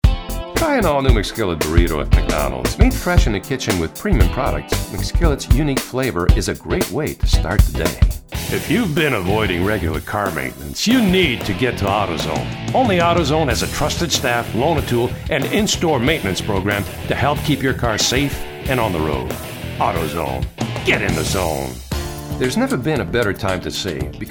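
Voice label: English Canadian, male, commercial; voice demos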